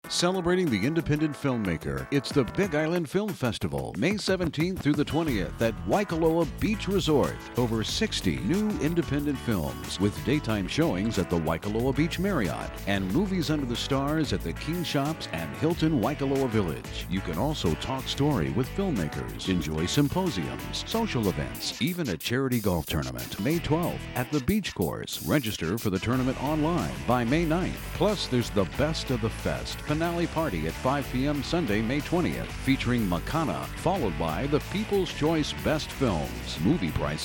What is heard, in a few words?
radio spot